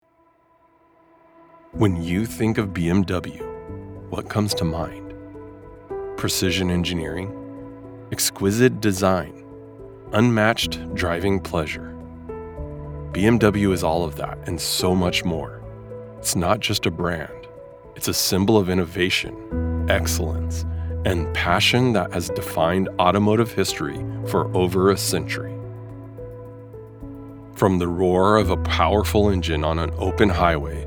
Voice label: Commercial BMW

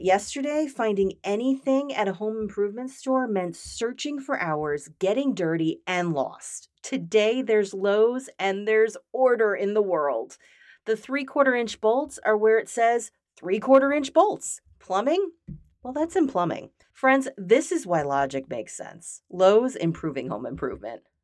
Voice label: Vocal Coaching Session; Lowes

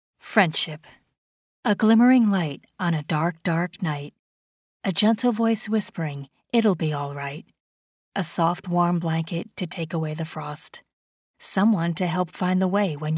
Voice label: Poetry; Friendship

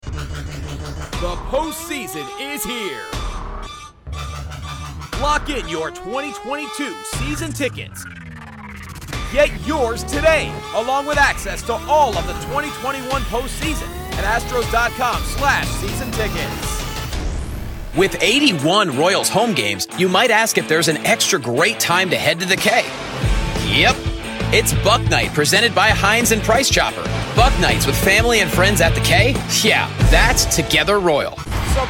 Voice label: Sports VO

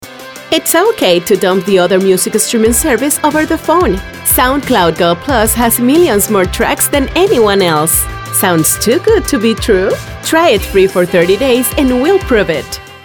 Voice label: English

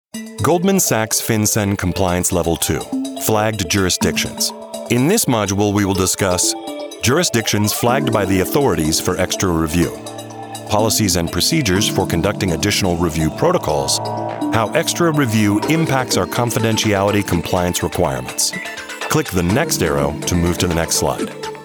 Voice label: E-Learning

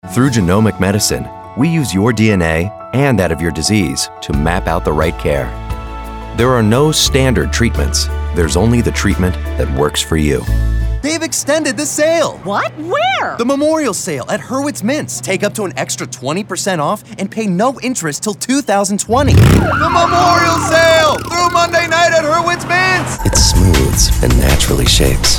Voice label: Commercials

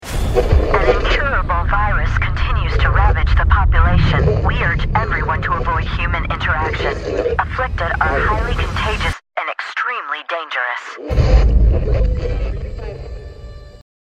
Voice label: Trailer VO; Here Alone

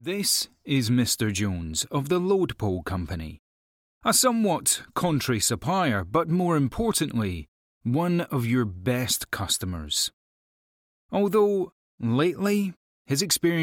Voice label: Corporate

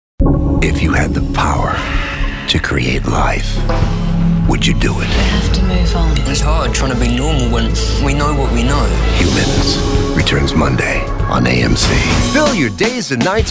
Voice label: Promos

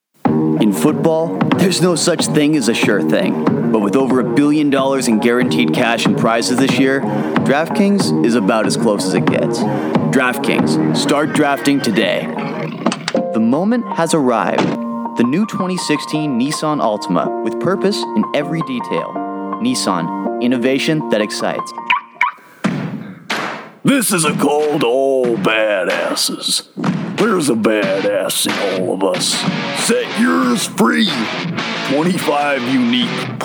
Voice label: Commercial

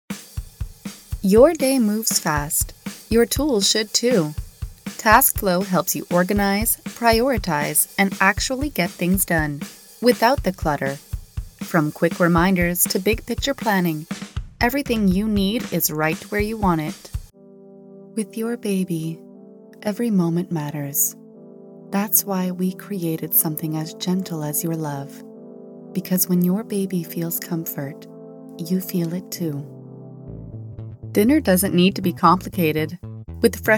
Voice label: EN Commercial

